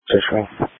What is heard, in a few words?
Sheriff Dispatch